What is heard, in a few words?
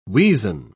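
"weazen", British English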